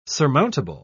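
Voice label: "surmountable", British English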